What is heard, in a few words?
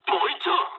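player killed enemy mood med, speech japanese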